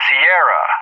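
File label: "Sierra", English; JTAC; Alphabet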